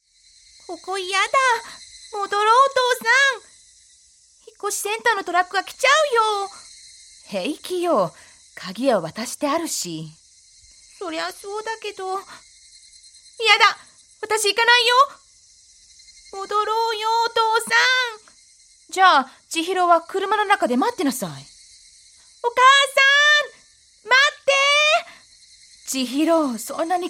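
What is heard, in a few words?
Japanese, Characters; GoLocalise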